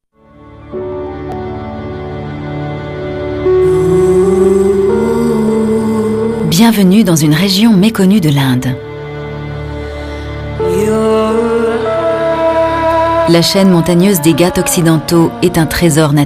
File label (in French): Docu Narration explicative et douce; Documentaire; Inde